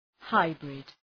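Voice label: "hybrid", English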